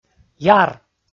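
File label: "яр", Komi, male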